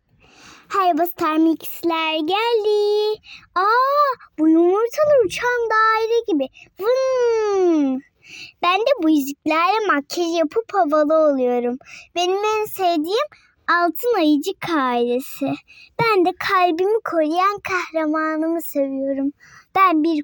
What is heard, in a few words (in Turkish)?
cocuk